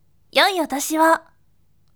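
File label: voice, bright; yoi otoshiwo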